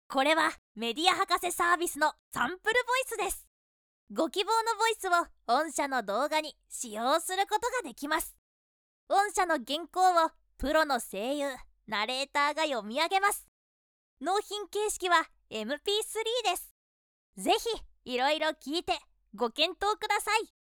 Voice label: character, narration sample voice women